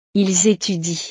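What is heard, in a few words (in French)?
"ils etudient", French; Ellos estudian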